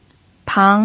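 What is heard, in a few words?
"pang", second tone, Mandarin Chinese pinyin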